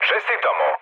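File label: Serbian player killed enemy mood low; speech; War Thunder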